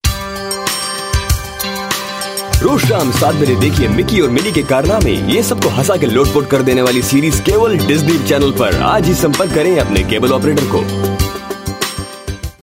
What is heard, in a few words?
Hindi Promo, male; India Male